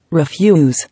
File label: "Refuse", English